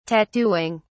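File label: "tattooing", British English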